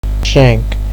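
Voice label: "shenk", Nigerian Pidgin, male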